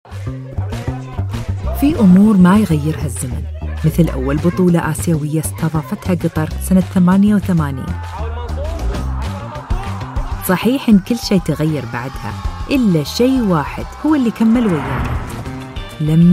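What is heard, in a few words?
Arabic Qatari General; GoLocalise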